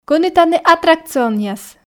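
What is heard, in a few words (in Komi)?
кӧні тані аттракционъяс, Komi, female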